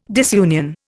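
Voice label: "disunion", Indian English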